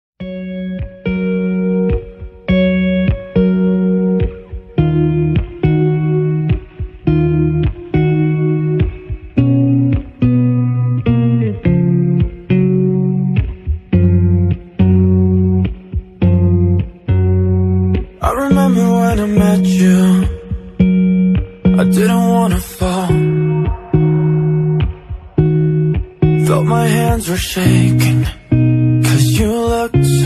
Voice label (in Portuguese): dia dos namorados namorada, Dia dos Namorados – Para Namorada – Voz Masculina